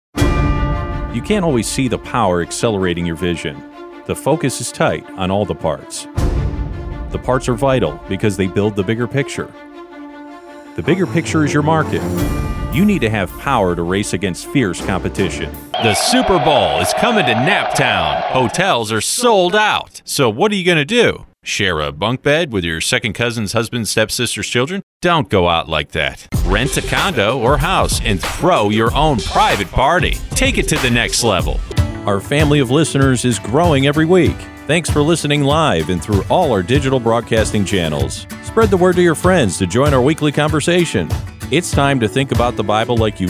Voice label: Male VO Talent; Premium Voice Over Talent in Indianapolis